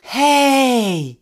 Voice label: "hey" stoned; Voice; ooh ahh